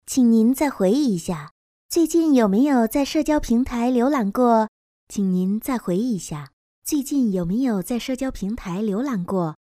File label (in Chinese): 客服试音